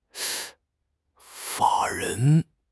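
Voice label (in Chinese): "法人", Mandarin Chinese; HSK6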